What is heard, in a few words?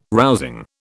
"rousing", British